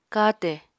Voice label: "katè", Apatani